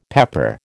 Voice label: "pepper", American

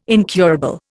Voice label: "incurable", Indian

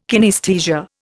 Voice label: "kinaesthesia", Indian

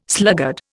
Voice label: "sluggard", Indian

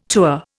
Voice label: "tour", Indian

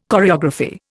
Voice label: "choreography", Indian

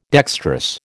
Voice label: "dextrous", American

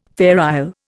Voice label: "Fair Isle", Indian

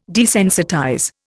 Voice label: "desensitize", Indian

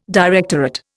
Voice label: "directorate", Indian

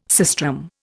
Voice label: "sistrum", Indian

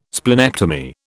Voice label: "splenectomy", British

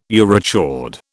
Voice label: urochord, British

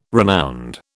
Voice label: "renowned", British

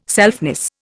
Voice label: "selfness", Indian

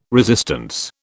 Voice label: "resistance", British

